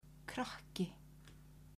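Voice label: "krakki", Icelandic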